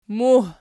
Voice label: "muh", Archi

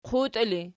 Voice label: "quti", Archi

